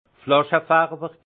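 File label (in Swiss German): "flaschefarwer", alsace Haut-Rhin; vin; colorant de bouteille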